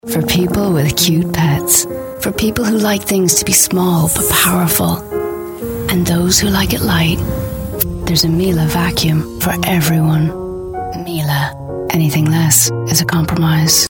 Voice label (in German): Eng IR Sanft